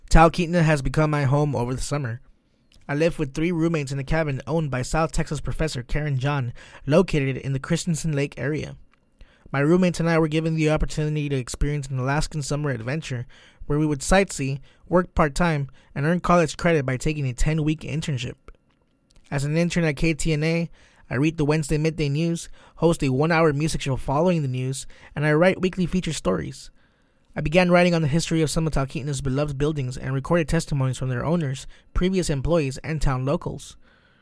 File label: ghost voice over; Talkeetnas ghosts